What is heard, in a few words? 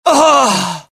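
death, dialogue generic Italian; mohaa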